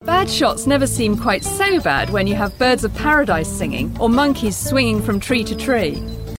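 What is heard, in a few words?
British English, Advertisement; GoLocalise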